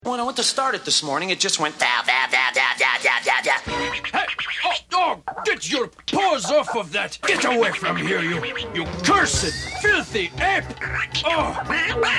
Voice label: men animation; Next door neighbor, warm, believable, smooth.